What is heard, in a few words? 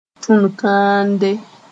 "tũn-kãande", moore